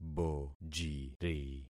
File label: "bojiri", concat syllables; Affixing experiment